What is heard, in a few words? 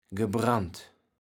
"gebrand", Kaevels Platt